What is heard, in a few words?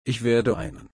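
"einen", German, slow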